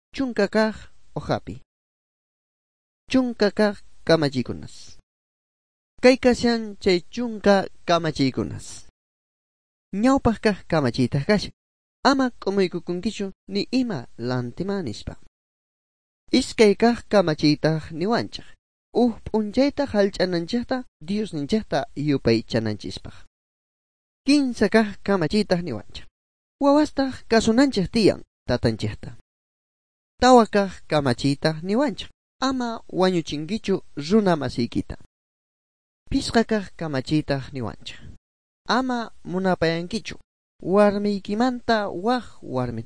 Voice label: good news quechua bolivian sucre; bible